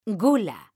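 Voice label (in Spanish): "gula", Spanish, female; mekanta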